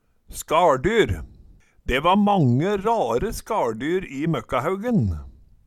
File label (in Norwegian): "skaLdyr", Numedalsmål